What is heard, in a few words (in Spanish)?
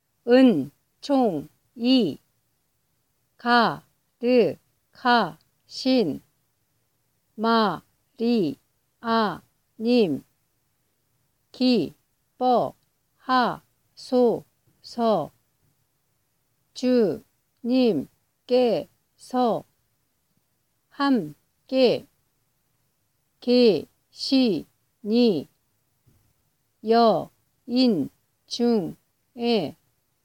ave maria coreano pausado